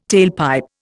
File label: "tailpipe", Indian